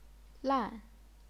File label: "lan4", chinese pinyin